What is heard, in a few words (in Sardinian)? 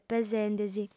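"pesende·si", female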